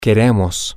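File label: we Want, Spanish, male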